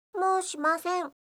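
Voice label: "moushimasen", Japanese; gomen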